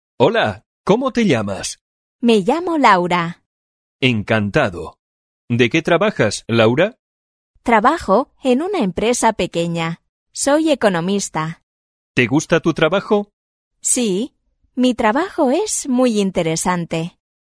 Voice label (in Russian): urok dialog